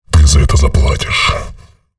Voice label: chatter negative; respawn; visor